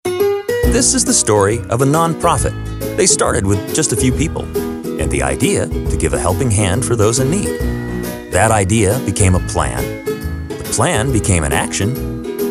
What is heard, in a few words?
Explainer